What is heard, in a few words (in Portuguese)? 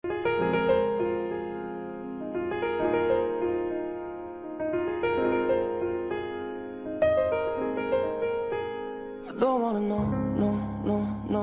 rom distam masc